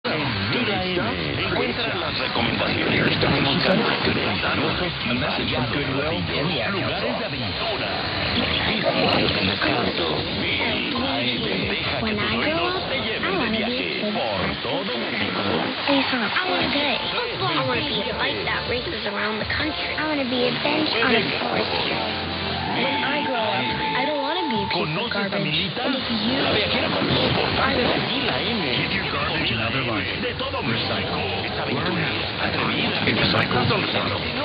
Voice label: Radio, Mexico; 1000 XEOY Radio Mil

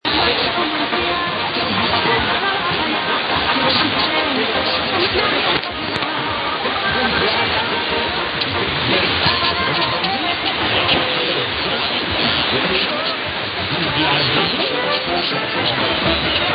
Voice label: Radio Cuba; 1000 Radio Granma

